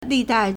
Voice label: "li4dai4", Chinese

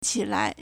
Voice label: "qi3 lai2", Chinese

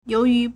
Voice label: "you2 yu2", Chinese

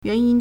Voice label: "yuan2yin1", Chinese

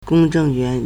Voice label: "gong1 zheng4 yuan2", Chinese

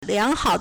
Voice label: "liang2hao3", Chinese

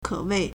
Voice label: "ke3wei4", Chinese

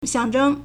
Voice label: "xiang4zheng1", Chinese

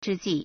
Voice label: "zhi1ji4", Chinese

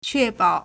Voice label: "que4 bao3", Chinese

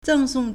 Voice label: "zeng4song4", Chinese

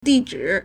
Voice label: "di4 zhi3", Chinese

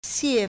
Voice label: "xie4", Chinese

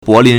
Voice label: "bo2lin2", Chinese

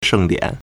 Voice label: "sheng4dian3", Chinese

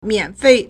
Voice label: "mian3fei4", Chinese

